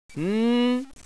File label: nervous, hostage reactions